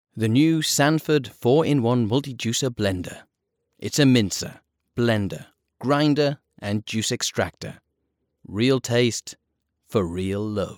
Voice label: male british voice over sales, english british